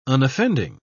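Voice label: "unoffending", English, British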